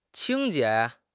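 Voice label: "清洁", Mandarin Chinese; HSK6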